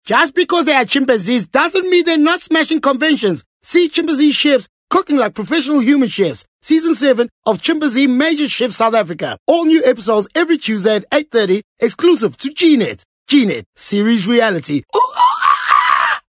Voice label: English Comedic Character

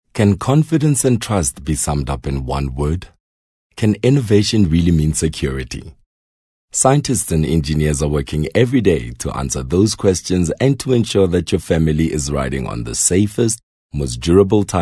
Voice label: English corporate

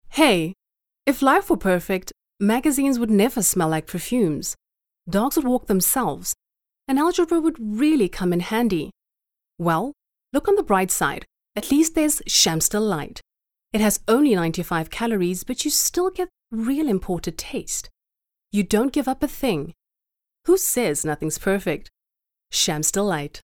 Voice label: English Conversational

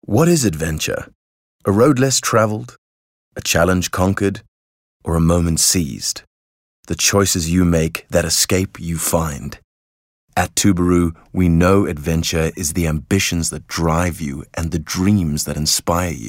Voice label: English softsell

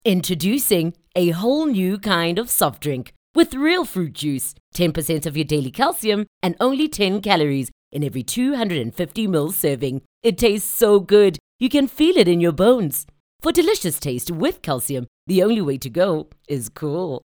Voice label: English bright; OSM Talent

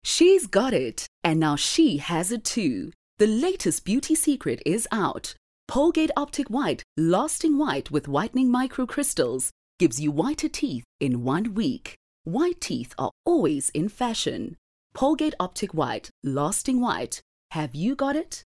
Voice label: English Bright

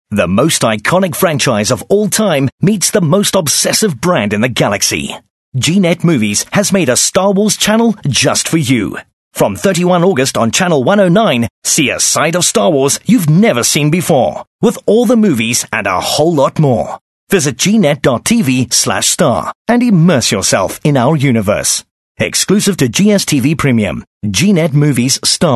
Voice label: English Skill Promo

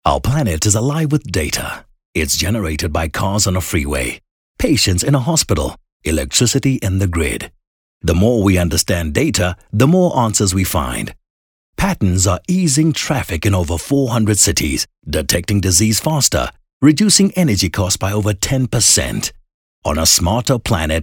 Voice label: English soft sell

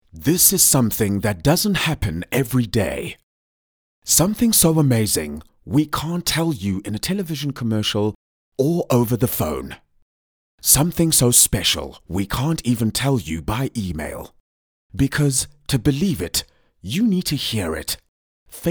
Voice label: Dramatic; OSM Talent

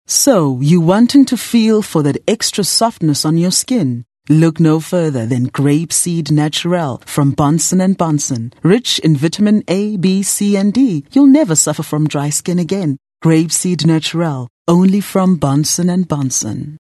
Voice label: English Softsell

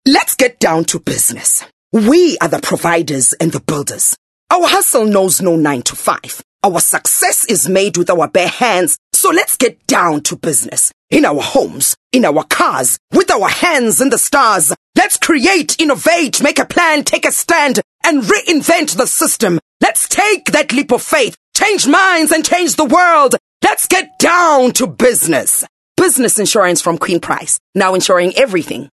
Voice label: English motivational speaker

